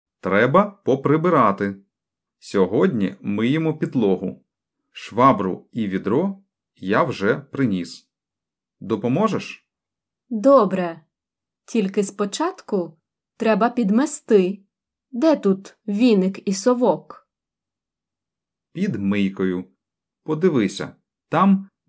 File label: Dialogue Slow